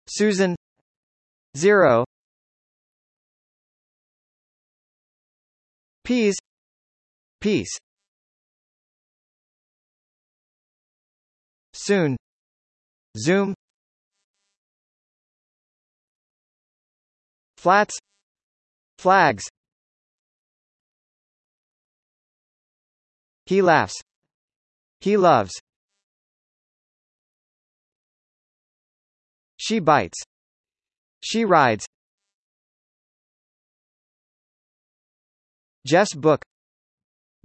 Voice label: s, US; der buchstabe s